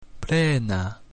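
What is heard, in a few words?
"plena", Latin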